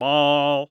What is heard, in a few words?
Sports Human Voice Male Young Man Baseball Umpire "Ball"